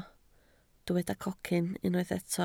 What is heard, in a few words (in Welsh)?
"cocyn", Welsh